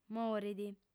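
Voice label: "morit", female; M'abìgio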